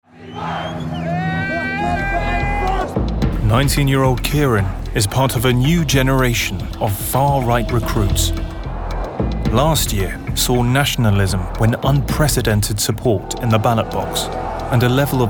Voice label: Narration